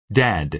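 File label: "dad", American English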